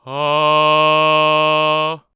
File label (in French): voix naturelle